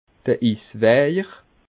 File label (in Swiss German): "iswejer", alsace